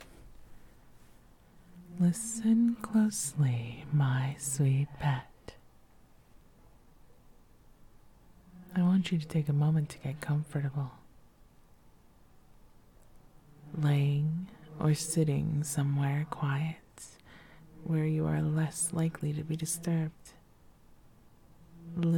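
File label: [F4M] [SFW][Beach][Helping you Relax][Ocean Sounds], female; Jormundgand's Induction 2; Wakener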